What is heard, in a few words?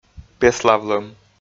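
"пеславлӧм", Komi, male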